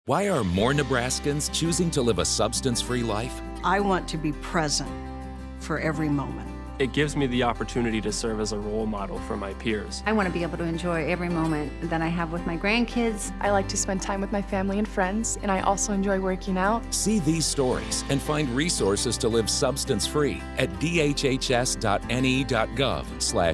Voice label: Radio spot - English; Choose You Campaign